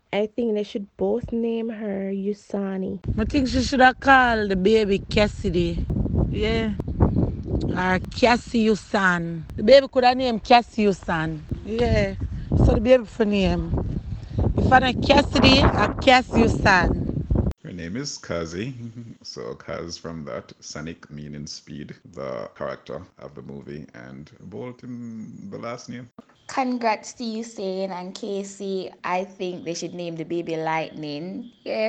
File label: vox pop; USAIN